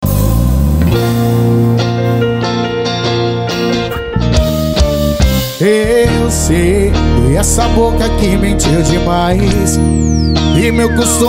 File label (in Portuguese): locutor a voz magnifica